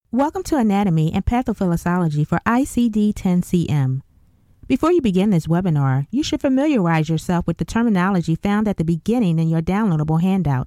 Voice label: medical e learning with fade out